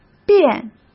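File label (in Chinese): "便", Mandarin Chinese; hsk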